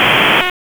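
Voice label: Police Spec Ops Tac, radio; Raleigh; Tac 5; wake; p25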